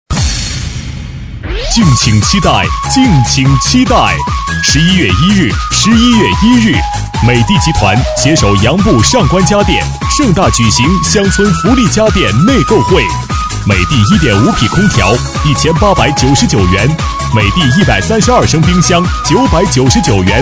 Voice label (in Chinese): 男 促销 大气激情